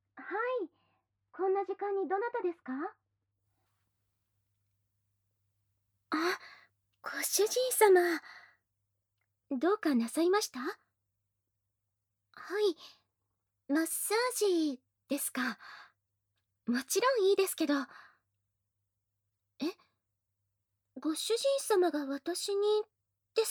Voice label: ASMR; ロアとのドスケベマッサージで下品なエッチ♪; SE無し